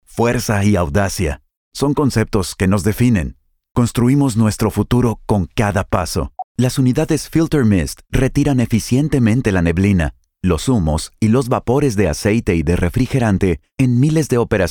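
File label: Spanish, narration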